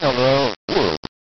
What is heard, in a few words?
voice dpcm; 1bitエセDPCM